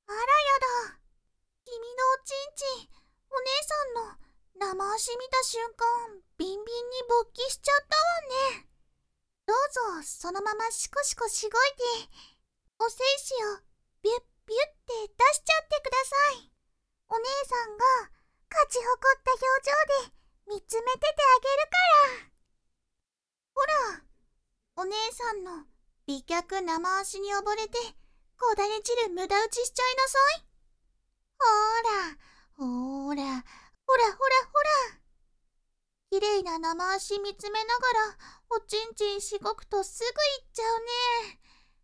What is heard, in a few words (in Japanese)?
お姉さん ロリ系ボイス; 隣国